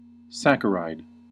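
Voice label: "saccharide", American English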